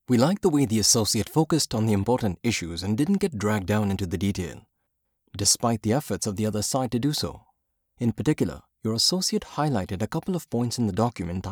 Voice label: Indian Accented Narration